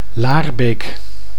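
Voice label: "Laarbeek", Dutch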